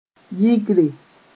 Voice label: "yɩgre", Mooré